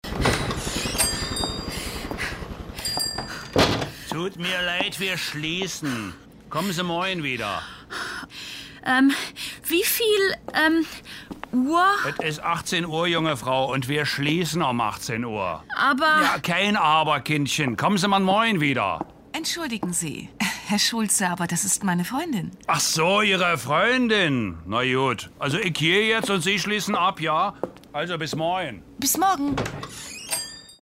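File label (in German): Hörspiel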